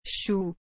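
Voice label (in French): "chou", French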